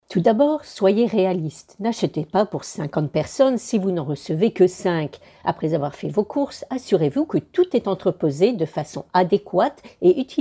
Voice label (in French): narration conseils; fao